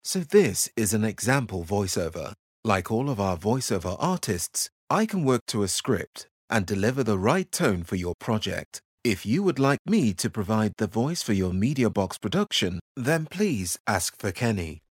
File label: voice over